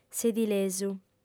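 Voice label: "sedilesu", female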